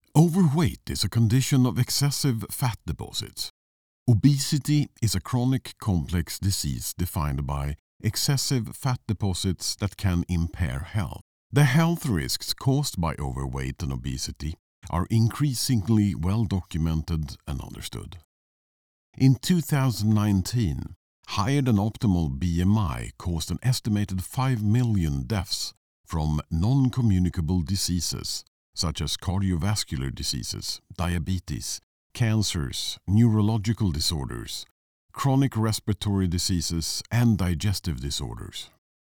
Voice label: Elearning English

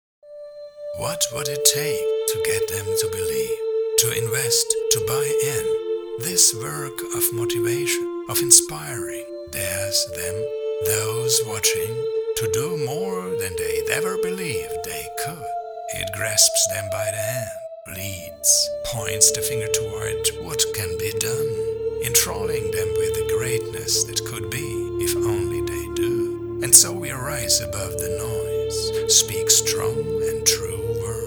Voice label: ENGLISH AMERICAN music

